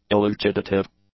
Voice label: "elucidative", English